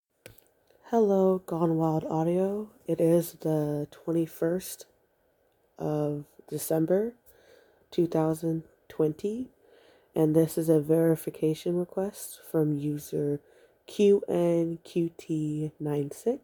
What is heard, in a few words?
Verification Request F